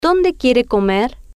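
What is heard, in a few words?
"Donde Quiere Comer", Spanish, female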